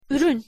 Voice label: "urun", tr

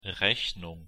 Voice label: "rechnung", de

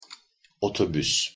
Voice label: pronunciation tr otobus

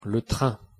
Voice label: pronunciation fr le train

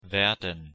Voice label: "werden", de

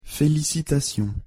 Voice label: félicitations, pronunciation fr

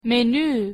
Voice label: "menu", de

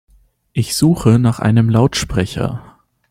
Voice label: ich suche nach einem lautsprecher, de

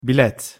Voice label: pronunciation tr bilet

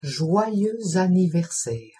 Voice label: joyeux anniversaire, pronunciation fr

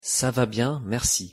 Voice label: ça va bien merci, pronunciation fr

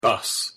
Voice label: "bus", en

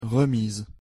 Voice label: "remise", fr

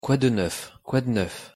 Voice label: quoi de neuf, pronunciation fr

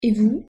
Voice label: et vous, pronunciation fr

